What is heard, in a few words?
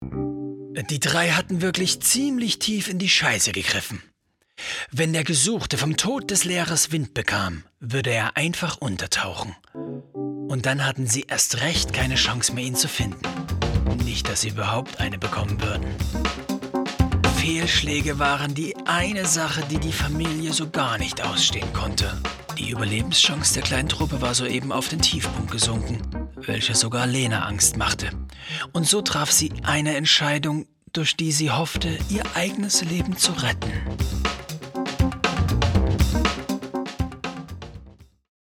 Voice Over